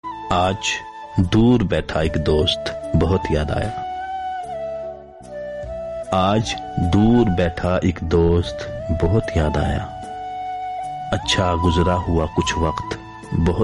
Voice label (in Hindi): shayari bgm theme